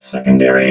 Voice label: "secondary", robot